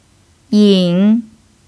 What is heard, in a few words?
"yin3", Chinese pinyin